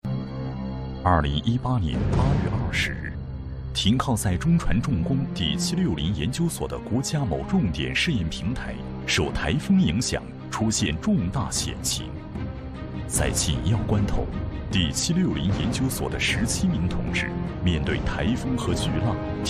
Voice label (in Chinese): 男; CCTV1七六零研究所